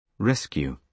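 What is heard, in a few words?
"rescue", British English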